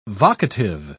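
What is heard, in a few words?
"vocative", British English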